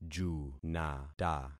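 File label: "junata", concatenated syllables; Affixing experiment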